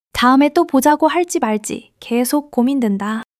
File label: excitement